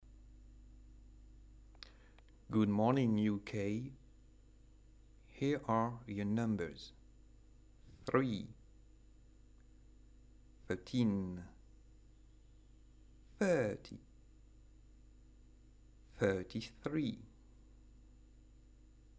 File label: uk maths english challenge